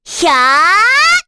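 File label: Vox Casting, korean; Rehartna; kingsraid; voices heroes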